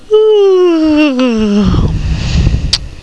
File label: FEMALE; PEOPLE